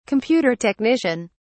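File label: "computer technician", English, British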